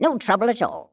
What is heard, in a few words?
female; baldurs1